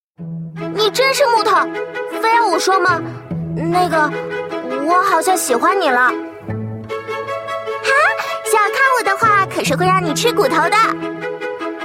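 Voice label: female young girl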